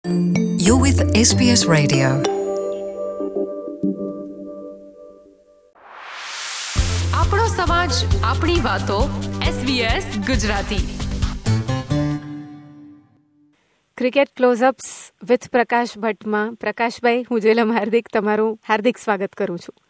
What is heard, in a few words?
gujarati; SBS Gujarati